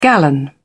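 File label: "gallon", British English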